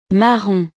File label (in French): "marron", frances